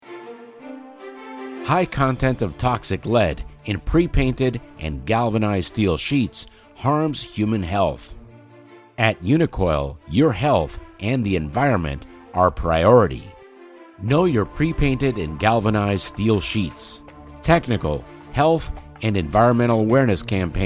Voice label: Radio Spot Music